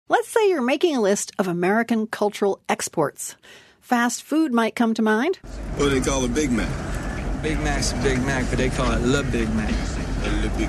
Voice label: Broadcast Interview Zimbabwean Standup